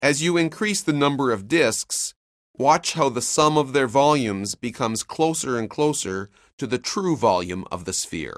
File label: narration; CALCULUS 7E; cengage